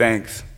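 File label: "thanks", male voice; expletives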